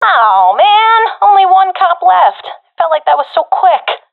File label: enemies left one, professional fem; vo; Counter Strike Model Pack; CS:GO